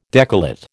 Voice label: "decollate", American

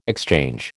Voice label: "exchange", American

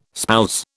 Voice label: "spouse", British

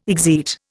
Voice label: "exeat", Indian

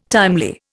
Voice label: "timely", Indian

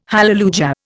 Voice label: "hallelujah", Indian

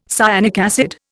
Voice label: "cyanic acid", Indian

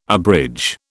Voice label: "abridge", British

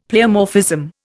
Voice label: "pleomorphism", Indian; Dictionary

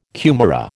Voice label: "kumara", American

